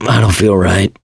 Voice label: going to die, survivor voice mechanic

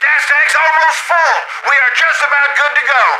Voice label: radio in transit; Left 4 Dead 2; left4dead2 dlc3; planepilot; npc

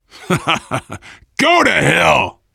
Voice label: generic responses, voice, biker; Left 4 Dead 2; left4dead2 dlc3; survivor

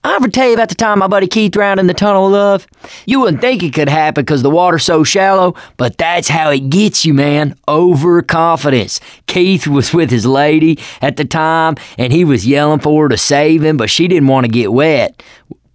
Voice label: stories, survivor voice

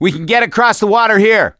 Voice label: misc directional, voice; gambler

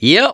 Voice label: "yes", survivor voice, mechanic

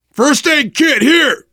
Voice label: spot first aid, voice, biker; Left 4 Dead 2; survivor

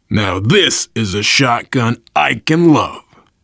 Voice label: take auto shotgun, voice, biker

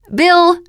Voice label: name, teen girl; bill

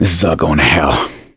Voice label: reaction negative, voice; gambler

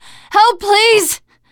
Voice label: help, teengirl, voice; player; survivor

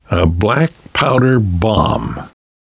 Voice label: take pipe bomb, namvet, survivor voice; Left 4 Dead 2; left4dead2 dlc3

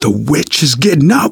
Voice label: witch getting angry, survivor voice; manager; Left 4 Dead 2; left4dead2 dlc2

